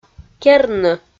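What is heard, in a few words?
"керны", Komi, female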